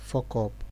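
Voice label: "fuck up", Nigerian Pidgin, male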